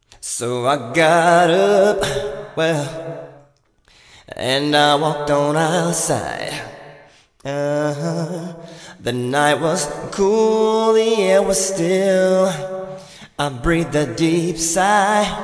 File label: Vox Inverse Wet; t-racks